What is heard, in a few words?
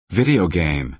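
"video game", American English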